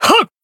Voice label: Voice attack, jp; joker